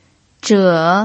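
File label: "zhe" Mandarin, third tone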